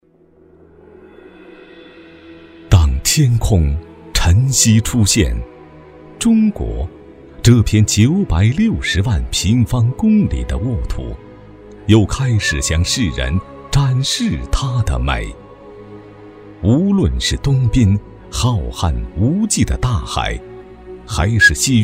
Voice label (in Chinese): 男 专题 历史大气恢弘